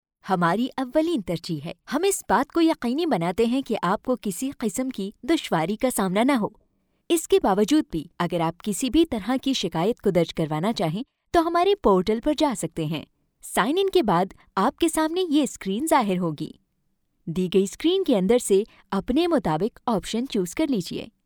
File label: female urdu voice over narrative